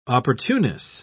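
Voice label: "opportuneness", British English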